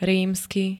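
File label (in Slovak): "rímsky", Slovak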